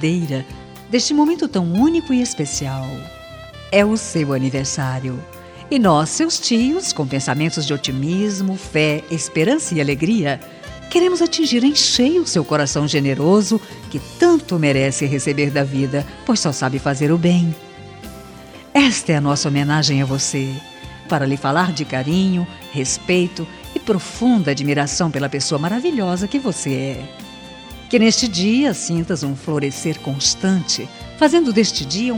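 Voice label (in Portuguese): birthday SOBRINHO female, telemensagem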